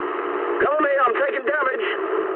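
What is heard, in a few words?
English aircraft damaged mood med; speech; War Thunder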